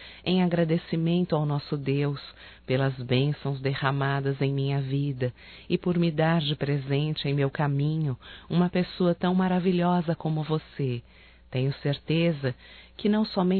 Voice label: Natal e Ano Novo Sogra Feminino; Telemensagens 2017

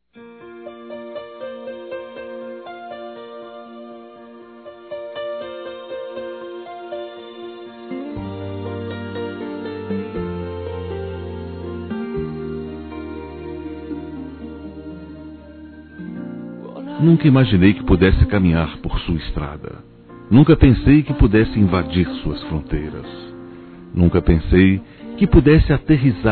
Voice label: Conquista Romantica Suave Masculino; Telemensagens 2017

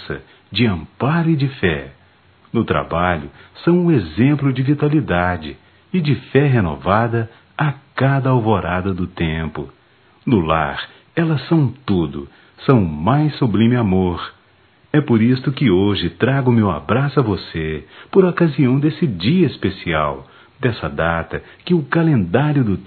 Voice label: Dia da Mulher, Só Voz, masc; Telemensagens; Telemensagens 2017

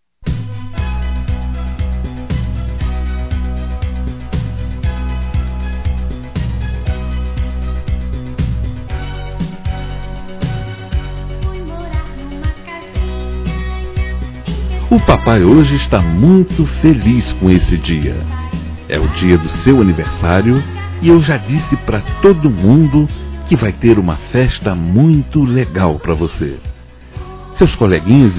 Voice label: Filho Criança Masculino; Telemensagens 2017; Aniversários; Aniversário Infantil

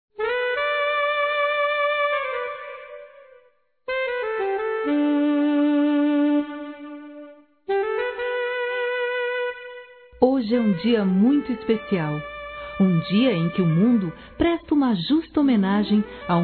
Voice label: VOCÊ É A MELHOR MÃE F, Telemensagens, Dia das Mães; Telemensagens 2017

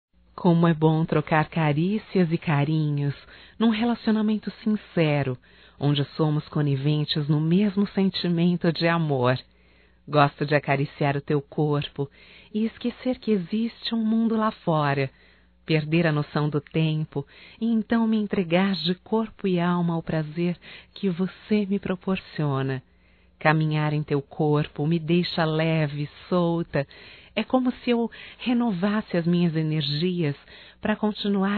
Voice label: ROMANTICA(F), Românticas, fem

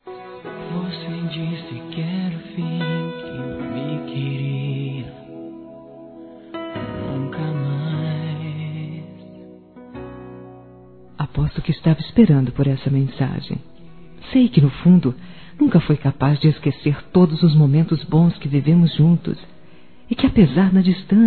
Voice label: Ex Namorado Feminino; Aniversários; Aniversários diversos

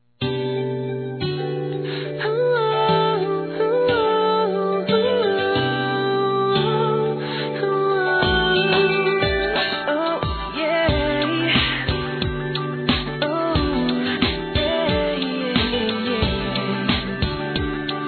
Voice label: Vestibular Masculino; Quem Passou; Telemensagens 2017; Formaturas

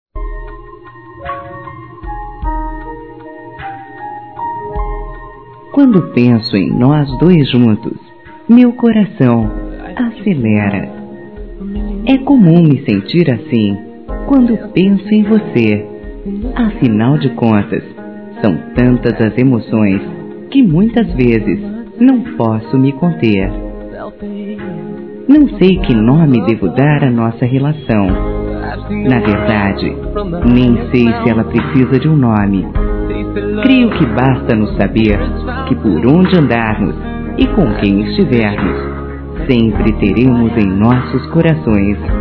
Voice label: Feminino, Dia Dos namorados; Telemensagens; Telemensagens 2017

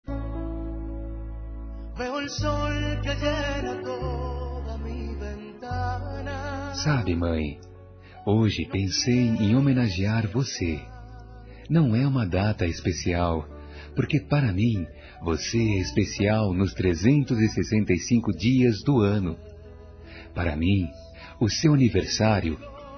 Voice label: HOMENAGEM A MÃE M; Homenagens; masc

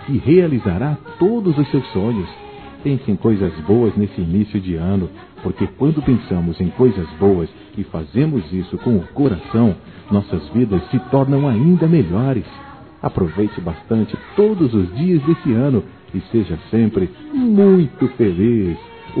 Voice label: Ano Novo PARA Criança Masculino, Telemensagens; Natal e Ano novo

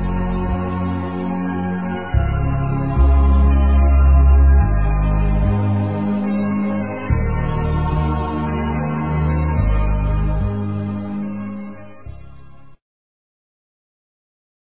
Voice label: Evang. Parab. Vitória f, Telemensagens, Gospel; fem; Telemensagens 2017

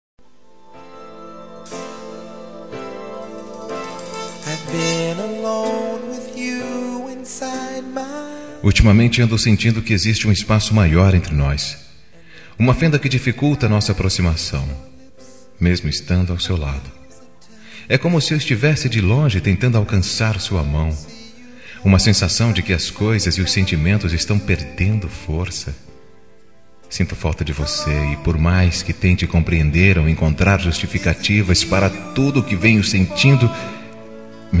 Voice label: ROM PRECISO DE CARINHO MASC

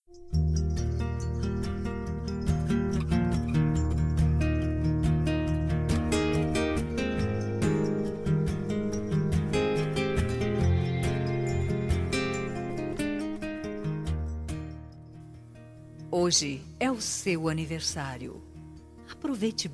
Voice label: ADOLESCENTE F, Telemensagens Aniversários; Aniversários diversos; fem

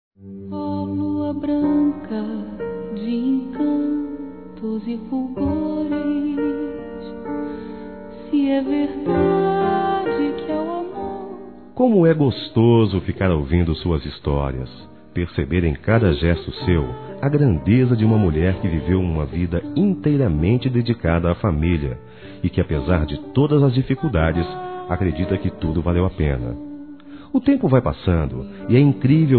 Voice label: Aniversário Avó Masculino

